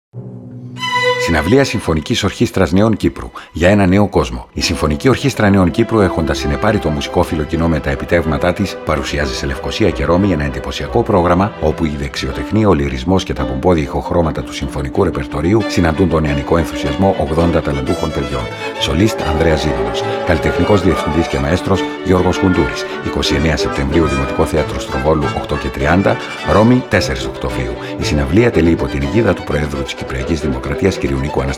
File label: tv spot; Gia ena neo kosmo; CYSO